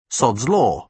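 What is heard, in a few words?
"sod's law", British English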